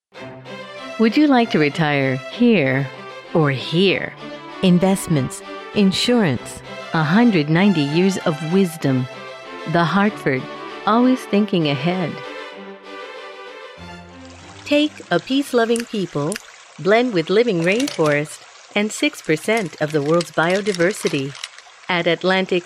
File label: English Commercial